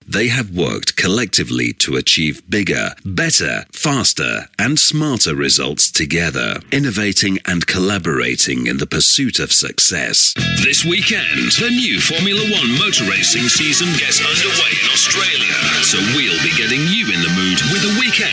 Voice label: voiceover reel main

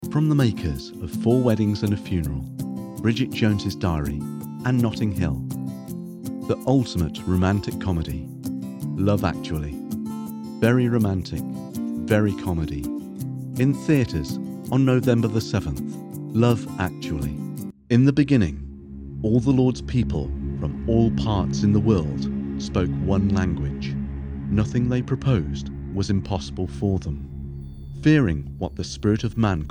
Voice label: movie trailer sample

